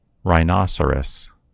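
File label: "rhinoceros", American English; gcompris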